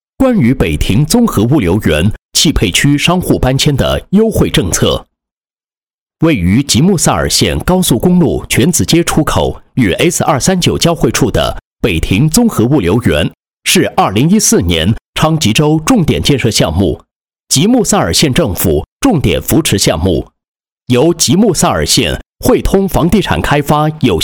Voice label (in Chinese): 男 地产招商 物流园招商优惠政策; 庭物流园招商优惠政策